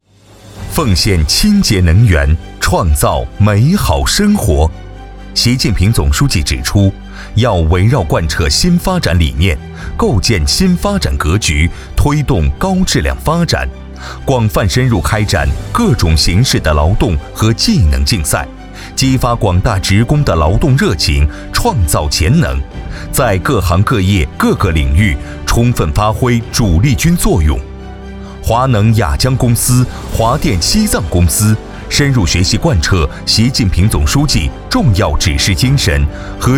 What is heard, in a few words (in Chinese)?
男 宣传片 水电; 华能雅江